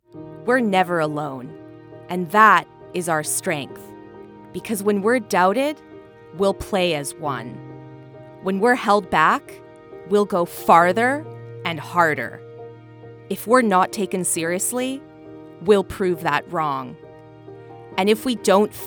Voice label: female english canadian neutral north american accent commercial; nike